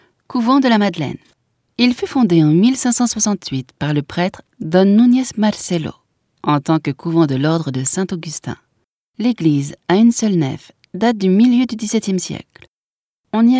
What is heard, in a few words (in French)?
audioguia, frances; audioguia baeza